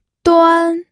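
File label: "duan", pinyin, first tone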